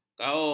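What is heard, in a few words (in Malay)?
"kaung", Malay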